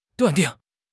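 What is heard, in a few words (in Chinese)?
"断定", Mandarin Chinese; HSK6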